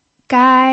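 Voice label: "gai", Mandarin Chinese, first tone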